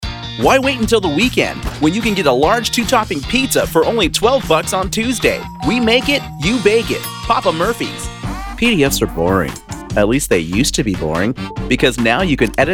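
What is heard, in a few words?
US English commercial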